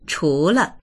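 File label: "chu2le", Mandarin Chinese; 除了; ～以外は、～を除いて; dictionary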